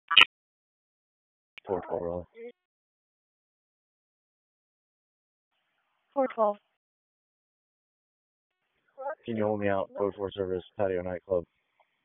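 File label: Police Dispatch, p25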